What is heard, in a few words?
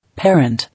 "Parent", English